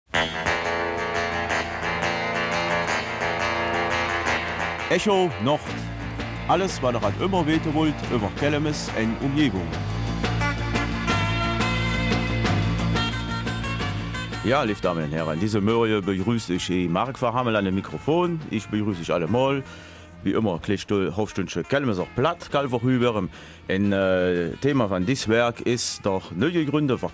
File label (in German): Kelmiser Mundart; Verkehrsverein Kelmis